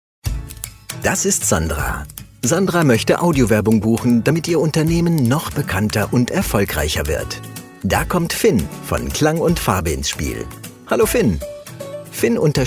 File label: german corporate videos; professioneller zweisprachiger Sprecher